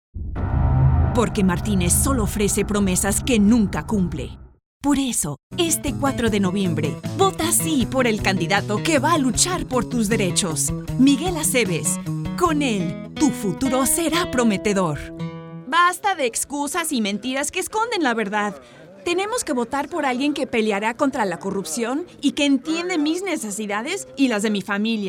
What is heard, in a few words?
Political Spanish